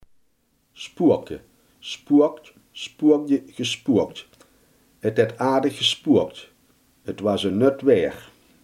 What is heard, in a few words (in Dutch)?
"spoken", Limburgs